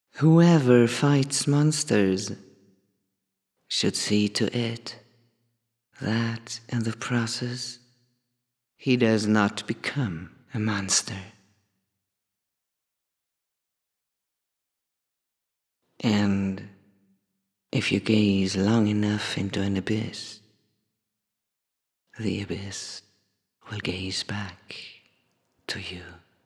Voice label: timeline voiceover, Scene, English US